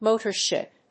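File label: "motor ship", English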